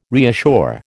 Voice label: "reassure", American